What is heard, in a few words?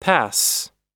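"pass", American English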